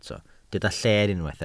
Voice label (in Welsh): "lleen" Welsh